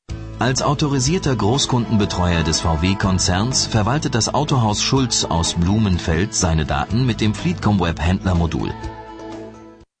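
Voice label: german male voice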